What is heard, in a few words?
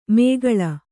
"ಮೇಗಳ", Kannada